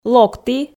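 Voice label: "локті", Komi, female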